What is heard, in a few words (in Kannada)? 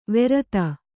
"ವೆರತ", Kannada